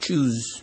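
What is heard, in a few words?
"choose", Nigerian Pidgin, male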